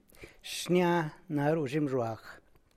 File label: The edge of the basket is red, San Lucas Quiaviní Zapotec; Xnia na ru zhimyzhruag.